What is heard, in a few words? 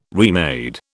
"remade", British